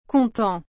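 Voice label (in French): "content", French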